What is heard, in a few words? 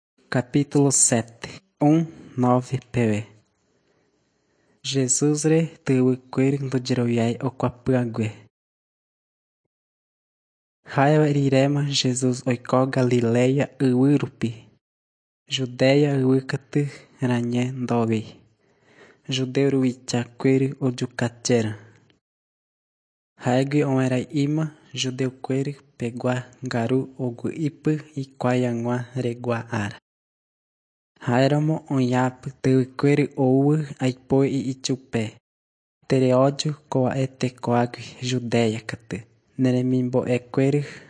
john guarani mbya, bible